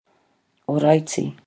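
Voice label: "oraiti", Māori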